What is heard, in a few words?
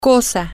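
thing, spanish, female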